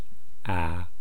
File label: "aa", East Cree syllabics